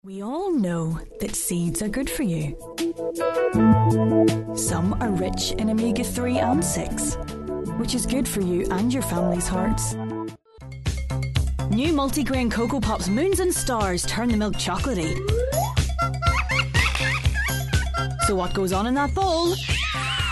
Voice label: irish